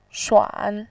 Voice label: "shuan3", voice

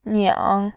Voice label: "niang3", voice

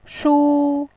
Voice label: "shu1", voice

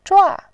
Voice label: "zhua4", voice

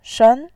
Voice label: "shen2", voice

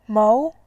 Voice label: "mou2", voice

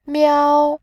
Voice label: "miao1", voice

